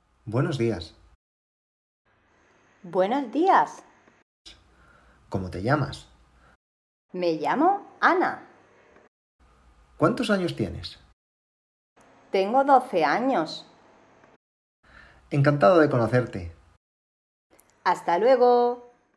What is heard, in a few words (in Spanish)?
Dialogo en castellano voz